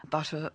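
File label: "butter", Standard Southern British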